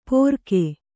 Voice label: "ಪೊರಕೆ", Kannada